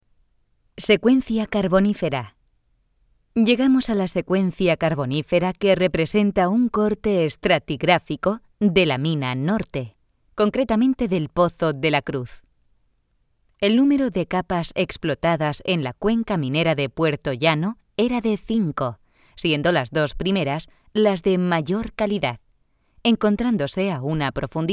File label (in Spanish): audioguia; audioguia museo de la mineria; esp